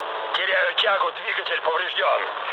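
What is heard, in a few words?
Russian damaged engine mood low, speech; War Thunder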